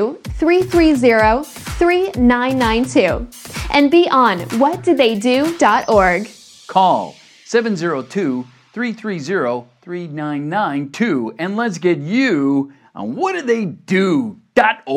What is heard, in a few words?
radio spot